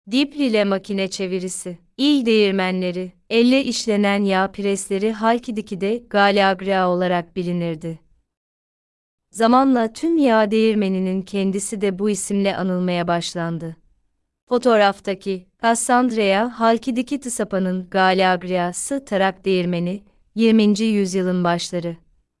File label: turkish